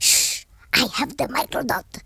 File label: collect, Russki